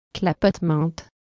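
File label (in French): "clapotement" French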